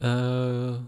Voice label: wolf uhhh; wolf seeking sheep; dialogue